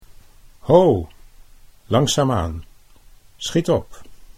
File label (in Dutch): "ho langzaam aan", Dutch